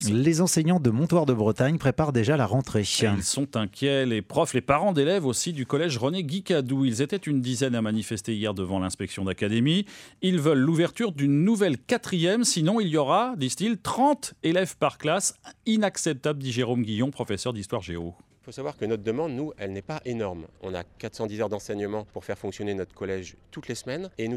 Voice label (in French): Reportage; collège Montoir; réponse DASEN